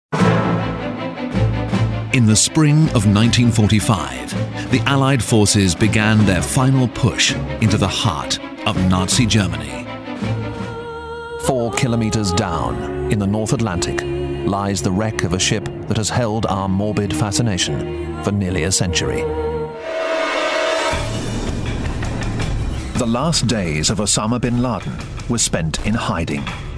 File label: TV Narration